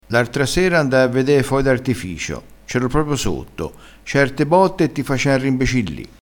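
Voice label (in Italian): "botta", vocabolario dialettale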